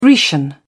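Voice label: "grecian", British English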